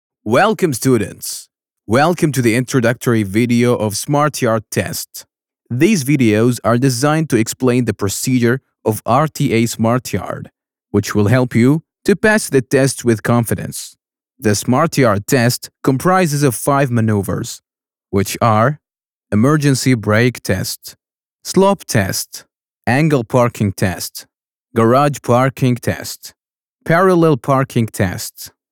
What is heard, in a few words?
US English; Smart Yard